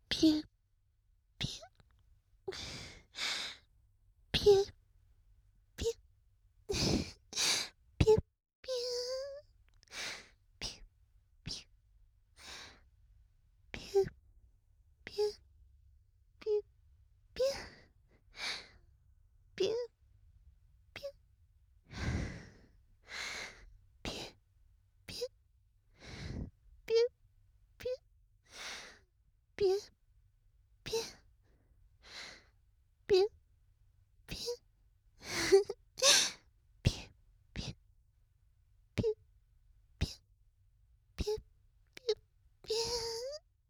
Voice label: 囁き淫語連呼; SEなし

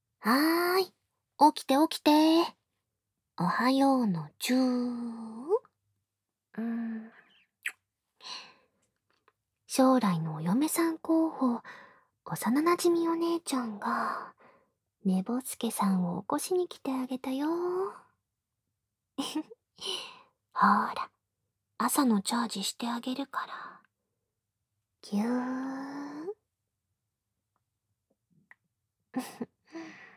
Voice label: おはようアラームボイス

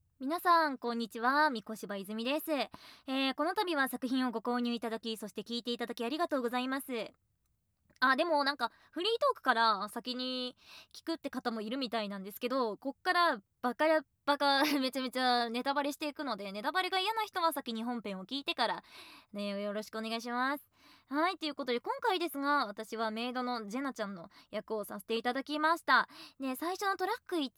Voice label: フリートーク

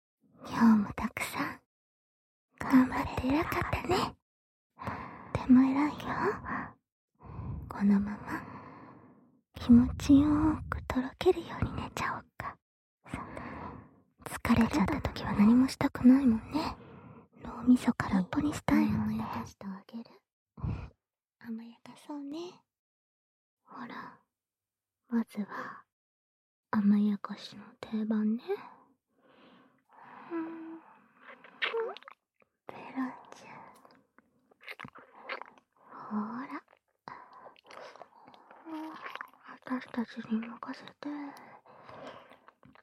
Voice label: 甘やかし寝かしつけサポート; 絶対に抗えない☆負け不可避なお射精応援サポート

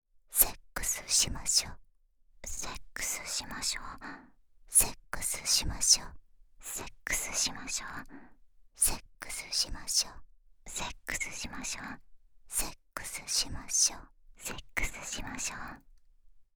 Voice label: 淫語連呼 セックスしましょ

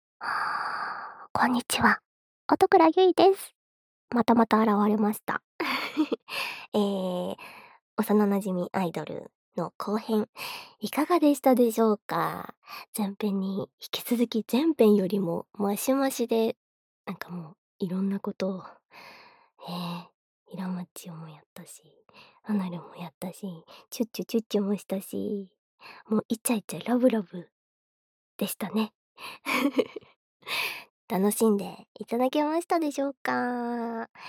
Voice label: フリートーク

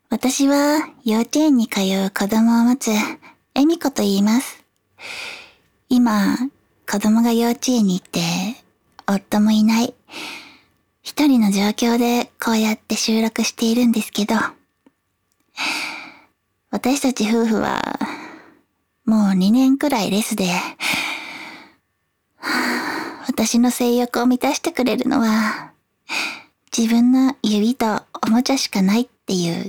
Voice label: 自己紹介, ASMR

